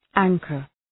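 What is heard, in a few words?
"anchor", English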